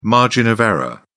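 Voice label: "margin of error", English, British English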